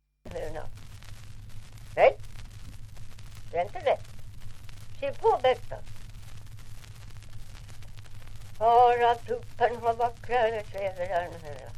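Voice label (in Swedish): Ovansjö Gästrikland, dialekter, svenska sagor; Folkminnen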